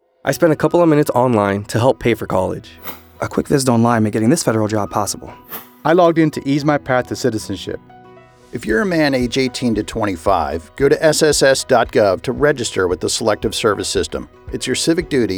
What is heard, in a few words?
Testimonial Radio